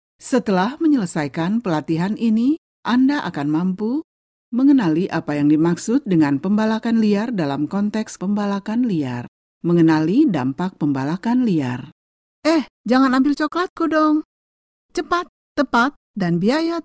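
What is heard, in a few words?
Indonesian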